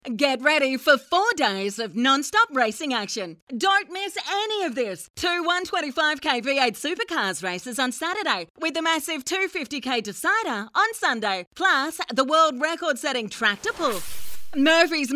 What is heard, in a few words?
Hard Sell